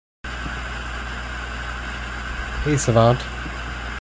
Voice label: "hey savant", en; wake-word